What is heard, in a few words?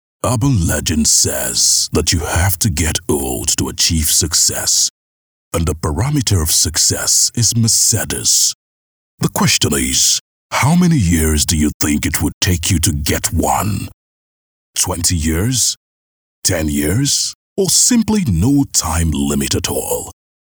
english african automotive